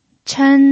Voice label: "chen1", pinyin

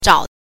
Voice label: "zhao", pinyin

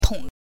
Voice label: "tong", pinyin

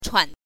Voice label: "chuan5", pinyin

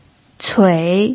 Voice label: "cui3", pinyin

